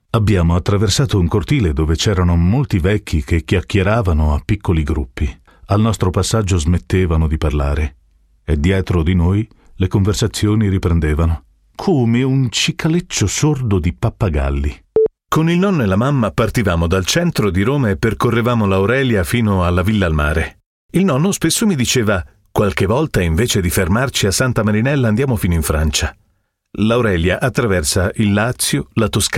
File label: italian audiobooks